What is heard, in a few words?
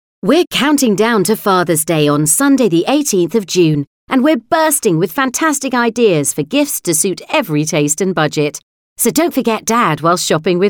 UK Fathers Day Female